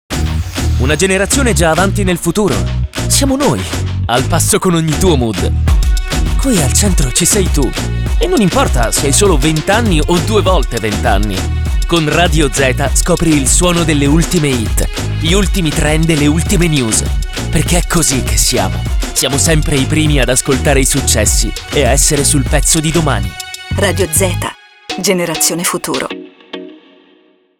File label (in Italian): urban coinvolgente promo; zeta